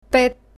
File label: "pet", Bulgarian, numbers